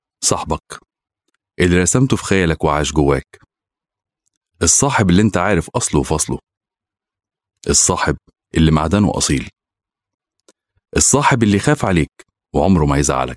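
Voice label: Arabic Egyptian Commercial Ad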